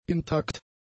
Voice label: "intakt", German; adjectives

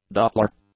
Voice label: "doppler", English; nouns

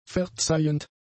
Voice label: "verzeihend", German; adjectives

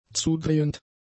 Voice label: "zudrehend", German; adjectives

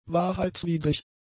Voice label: "wahrheitswidrig", German; adjectives

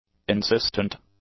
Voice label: "insistent", English; adjectives

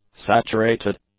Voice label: "saturated", English; adjectives

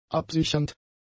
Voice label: "absichernd", German; adjectives

